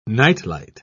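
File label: "night-light", British English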